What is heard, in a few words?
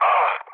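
Turkish damaged pilot, mood low, speech; War Thunder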